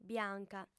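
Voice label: "bianca", lingua sarda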